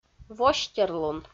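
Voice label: "востерлун", Komi, female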